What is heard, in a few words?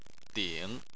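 "ding" third tone, Chinese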